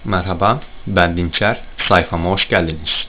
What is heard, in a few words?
turkish